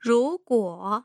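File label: "ruguo", Mandarin Chinese, tone pairs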